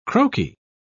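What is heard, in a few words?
"croaky", British English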